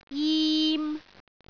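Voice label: "jim3", Cantonese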